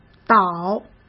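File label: "倒", Mandarin Chinese; chinese-flashcards; hsk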